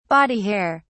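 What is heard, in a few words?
"body hair", British English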